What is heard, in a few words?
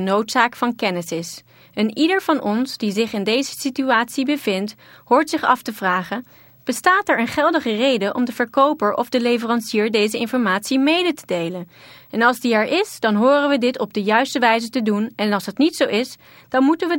Dutch